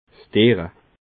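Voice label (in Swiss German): "derre", Alsatian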